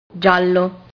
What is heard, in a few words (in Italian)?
"giallo", Italian